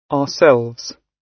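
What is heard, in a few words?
"ourselves", British English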